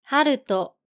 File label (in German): "haruto", japanische jungennamen